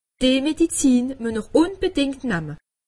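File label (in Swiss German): "medizin", Alsatian; medecine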